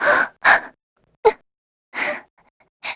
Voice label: Vox Sad; kingsraid